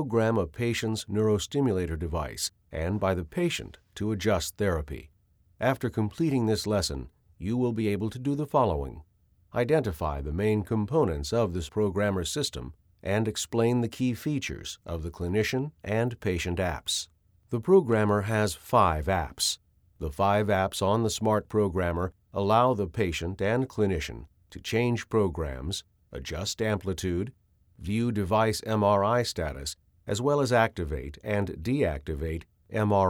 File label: E-Learning